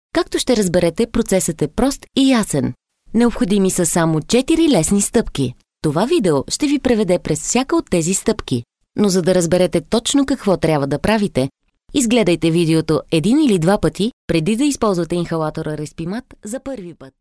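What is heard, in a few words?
Bulgarian, female, e-learning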